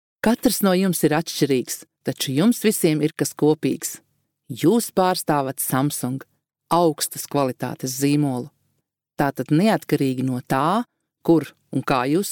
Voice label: female latvian voice over sales

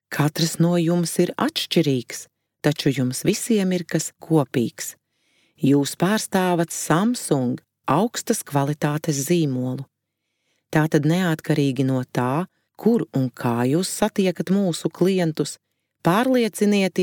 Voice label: female latvian voice over sales

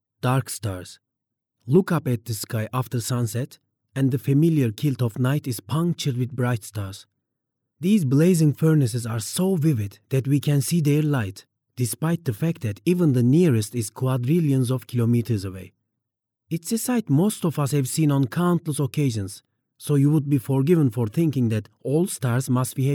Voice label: male turkish voice over talent english

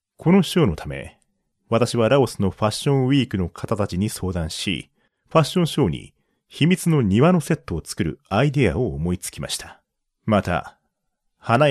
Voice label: male japanese voice over talent narrative

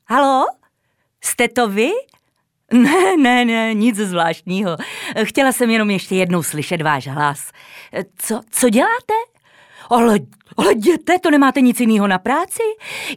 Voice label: female czech voice over character

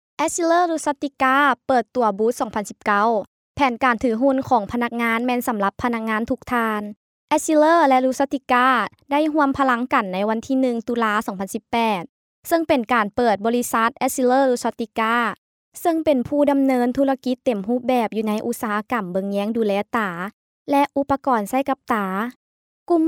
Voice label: female lao voice over narrative

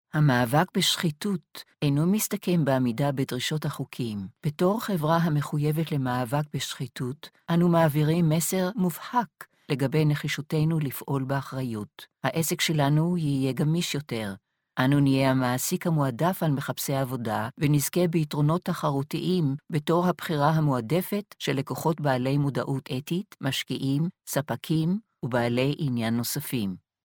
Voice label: female hebrew voice over narrative

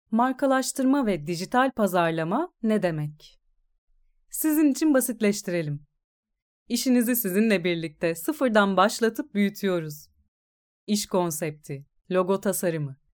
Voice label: female turkish voice over narrative

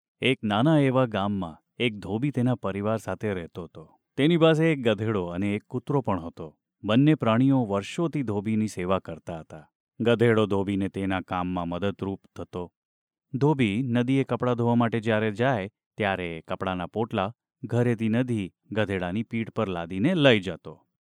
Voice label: male gujarati voice over talent narrative

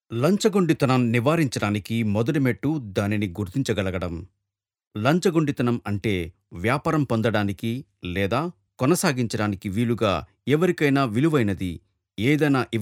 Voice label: male telugu voice over talent narrative

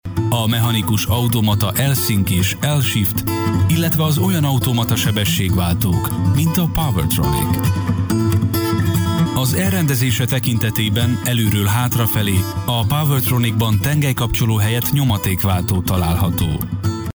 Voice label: male hungarian voice over talent sales

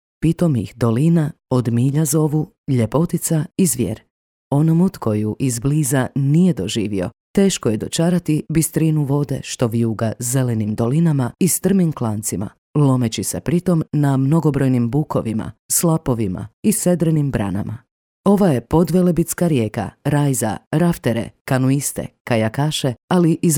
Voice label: female croatian voice over talent narrative

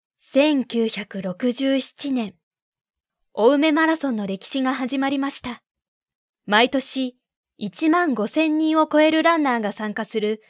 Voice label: female japanese voice over narrative

